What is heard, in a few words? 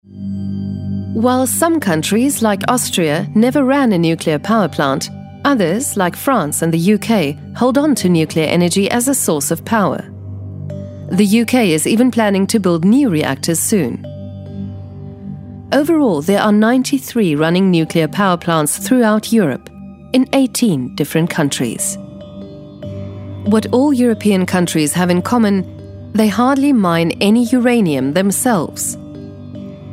en-uk dokumentation